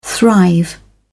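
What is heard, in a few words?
"thrive", British English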